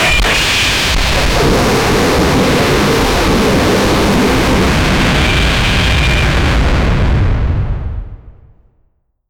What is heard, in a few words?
female professional annou